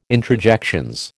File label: "introjections", American